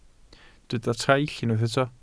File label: "traill", Welsh